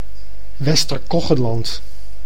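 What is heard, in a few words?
"Wester-Koggenland", Dutch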